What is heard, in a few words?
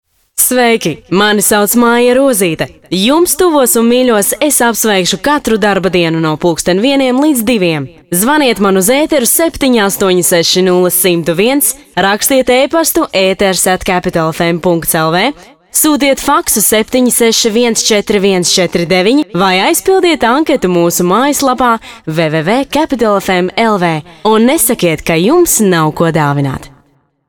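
female, commercial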